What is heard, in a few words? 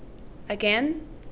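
"again", Canadian female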